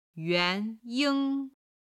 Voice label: "yuan2 ying1", Chinese

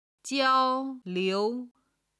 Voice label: "jiao1 liu2", Chinese

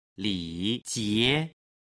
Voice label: "lijie", Chinese

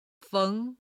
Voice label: "feng2", chinese

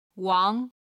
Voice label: "wang2", chinese

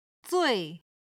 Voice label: "zui", Chinese

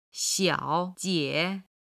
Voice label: "xiao3jie5", Chinese

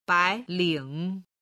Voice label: "bailing", chinese

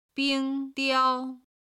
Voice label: "bing1 diao1", chinese

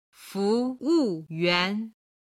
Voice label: "fu2wu4yuan2", Chinese

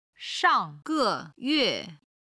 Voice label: "shang4ge5xing1qi1", Chinese

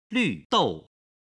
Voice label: "lv dou", Chinese

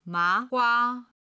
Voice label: "ma2 hua1", Chinese; 天津麻花

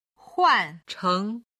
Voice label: "huancheng", Chinese

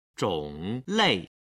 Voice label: "zhonglei", Chinese

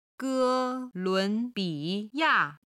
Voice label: "ge1 lun2 bi3 ya4", Chinese

